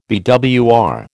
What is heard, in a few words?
"BWR", American